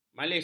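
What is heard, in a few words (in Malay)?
"malis", Malay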